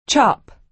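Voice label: "chop", American English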